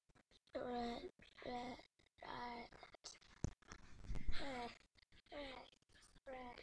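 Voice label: "lead", spoken word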